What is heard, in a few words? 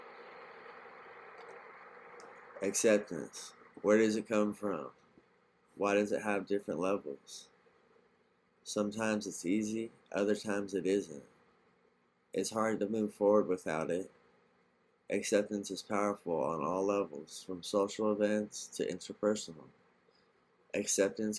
Spoken word